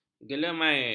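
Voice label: "gelemair", Malay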